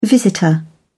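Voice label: "visitor", English, British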